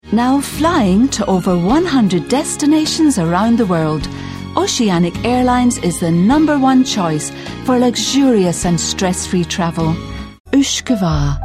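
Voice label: British, TV commercial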